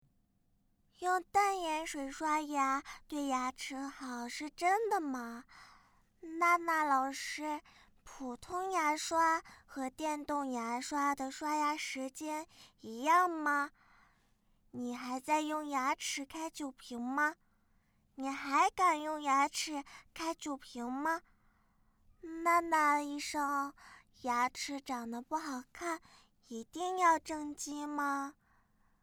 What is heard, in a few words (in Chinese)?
牙齿保健女童